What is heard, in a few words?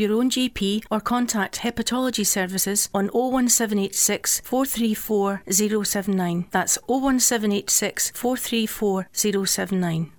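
Hepatitis C Awareness Advert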